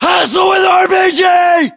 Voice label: threat rpg, US

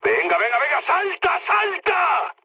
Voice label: bailout, mood high, speech; War Thunder

